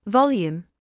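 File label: "volume" English, British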